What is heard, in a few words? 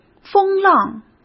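"风浪", Mandarin Chinese; hsk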